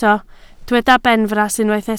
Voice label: "benfras", Welsh